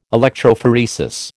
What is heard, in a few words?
"electrophoresis", American